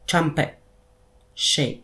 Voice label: "tyambək" sheep